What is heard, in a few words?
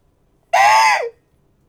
Voices Female